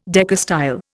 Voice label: "decastyle", Indian